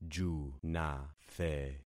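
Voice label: "junathe", concatenated syllables; Affixing experiment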